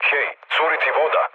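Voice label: Serbian damaged water mood low, speech; War Thunder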